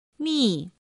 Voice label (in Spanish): "mì", Chino